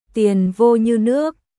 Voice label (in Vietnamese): "Tiền vô như nước", new year greetings; ベトナム語; テト（Tết）; お金が水のように入りますように